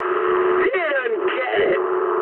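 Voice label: bomb failure mood high, speech English; War Thunder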